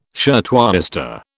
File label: "shirtwaister", British